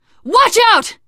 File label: lookout, teen girl voice; source engine; left 4 dead 2; survivor